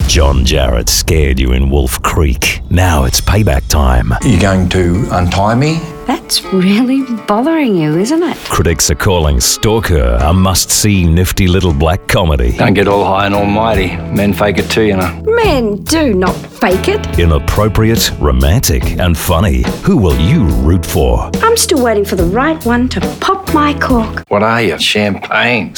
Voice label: english australian movie trailers

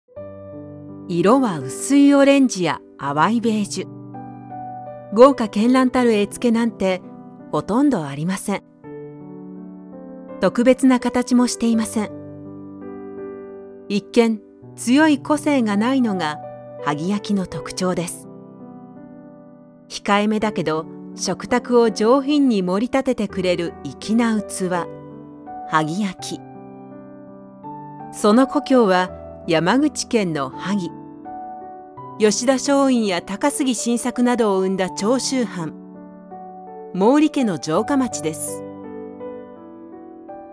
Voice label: japanese promos

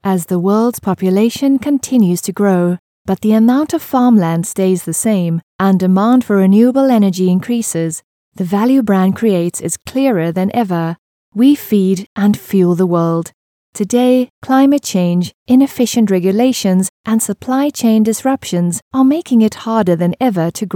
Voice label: english international narration; Synchronsprecher aus Südafrika

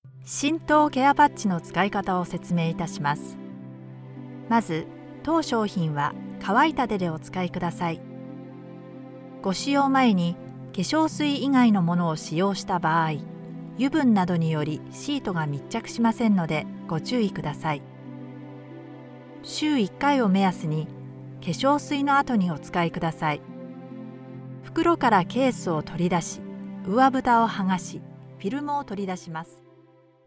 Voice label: japanese others

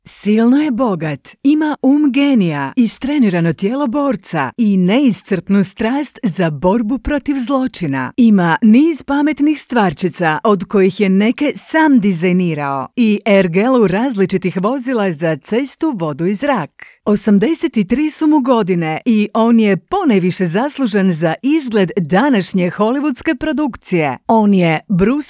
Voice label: croatian movie trailers

